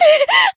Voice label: Voice damaged jp